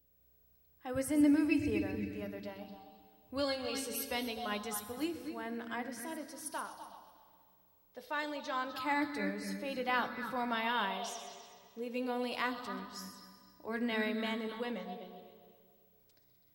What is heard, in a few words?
Narration; The Cave